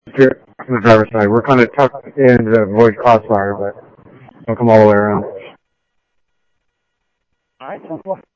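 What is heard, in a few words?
Simulcast Chandler Patrol A, scanner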